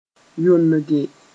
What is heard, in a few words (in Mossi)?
"yonge", moore; open widely (the eyes)